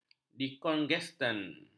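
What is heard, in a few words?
"dekongestan", Malay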